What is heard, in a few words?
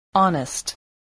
"honest", voice spelling